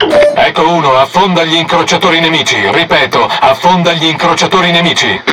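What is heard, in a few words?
It Speech Tutorial Task, Italian; World Of Warships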